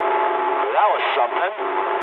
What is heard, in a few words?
eng trick mood high, speech eng; War Thunder